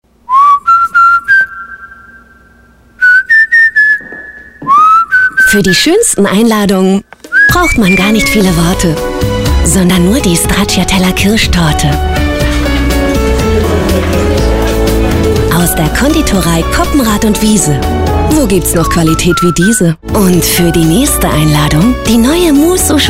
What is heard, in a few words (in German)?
TV-Spot, Kirschtorte, werbung; Coppenrath und Wiese